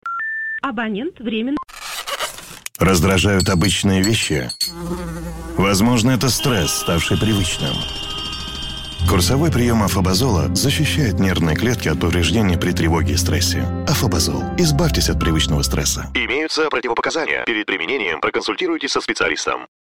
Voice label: radio afobazol